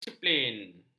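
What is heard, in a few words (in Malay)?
"disiplin", Malay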